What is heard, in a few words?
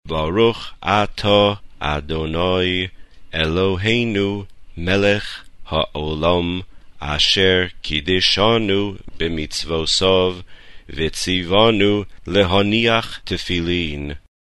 ashkenazis